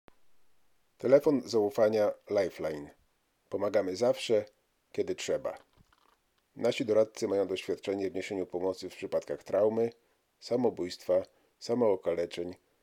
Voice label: Polish recording